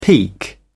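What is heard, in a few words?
"peak", British English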